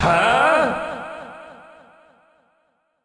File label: flowery voice clip powering up